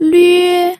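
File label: "lüe", first tone, pinyin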